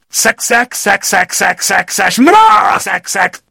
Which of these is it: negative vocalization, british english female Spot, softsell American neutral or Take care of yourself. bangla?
negative vocalization